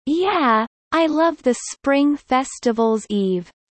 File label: Kelly low; spring festival